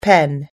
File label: "pen", US English